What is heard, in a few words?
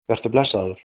goodbye, icelandic